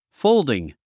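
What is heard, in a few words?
"folding", English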